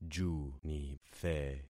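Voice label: "junithe", concatenated syllables; Affixing experiment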